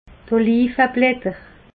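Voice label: "oliveblatter", Alsatian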